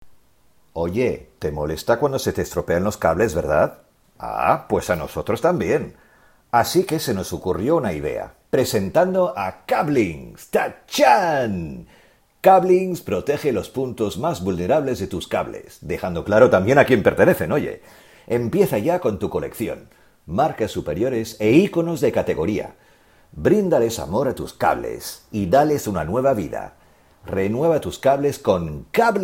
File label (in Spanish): Castellano